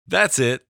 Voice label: Male Voice Thats It